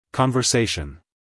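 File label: "conversation", American English male; everyone-can-use-english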